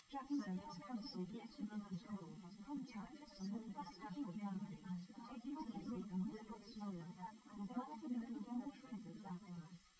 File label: female liverec; SiSEC11; underdetermined